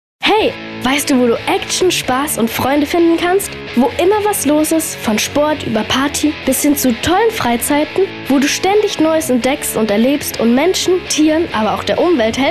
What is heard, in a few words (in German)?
Werbespot